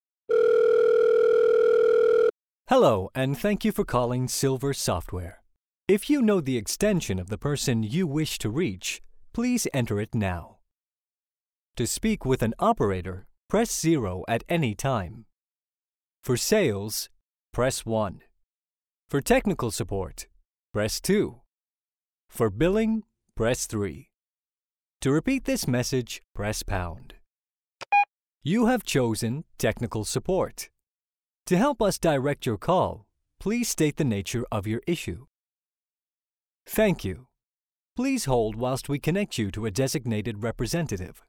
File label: IVR Demo Reel